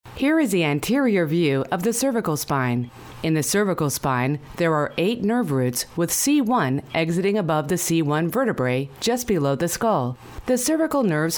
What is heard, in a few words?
Narration, Medical, Female